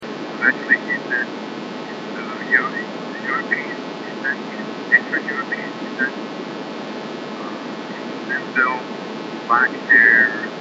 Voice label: eastern european with hard k in name